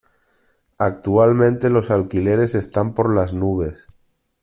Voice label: spanish

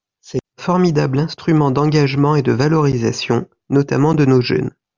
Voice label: french

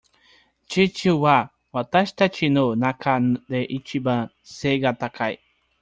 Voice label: japan

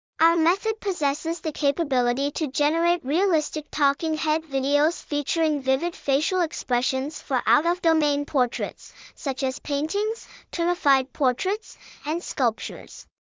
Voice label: out of domain narrative

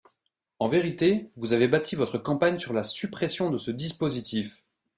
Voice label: french

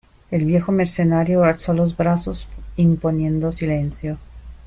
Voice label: spanish

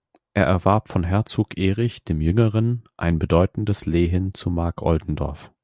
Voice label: German

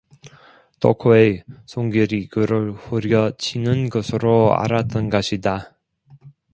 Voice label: korean

